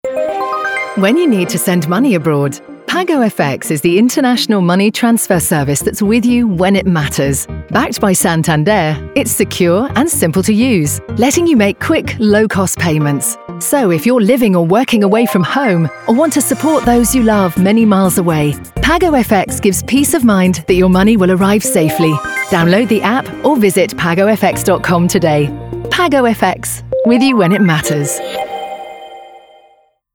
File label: Radio Ad; Pago FX